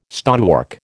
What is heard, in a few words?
"stonework", American